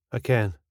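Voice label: I ken, Scottish English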